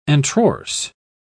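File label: "antrorse", British English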